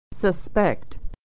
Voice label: "to suspect", pronunciation